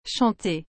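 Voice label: "chanter", French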